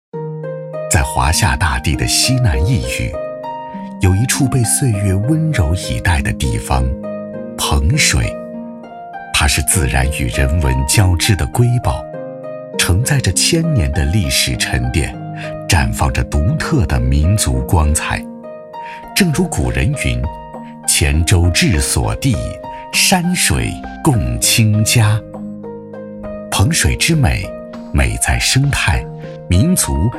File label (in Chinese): 男 专题 唯美中国风; 彭水